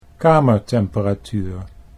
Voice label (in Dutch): "kamertemperatuur", Dutch